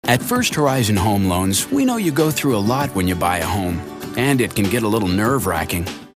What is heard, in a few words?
concerned